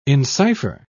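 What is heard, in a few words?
"encipher", British English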